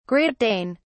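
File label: "great dane", British English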